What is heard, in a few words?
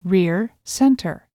Rear Center; speaker test